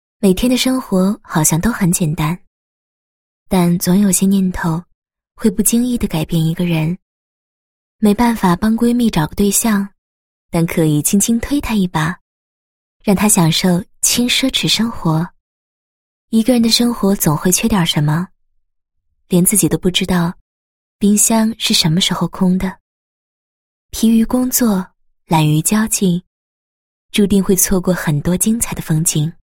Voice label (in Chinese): 女 产品宣传 平台宣传简介; 九号街区